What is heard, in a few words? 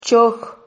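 "choj", Spanish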